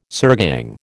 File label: "sirgang", American